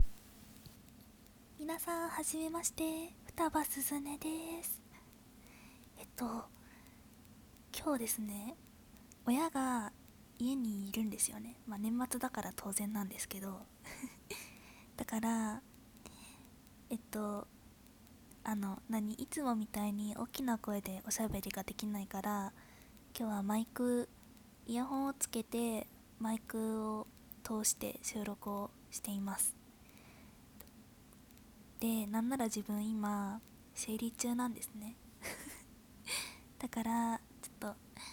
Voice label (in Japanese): ひそひそ自己紹介トーク